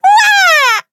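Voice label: story, Japanese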